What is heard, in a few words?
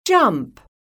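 "jump", English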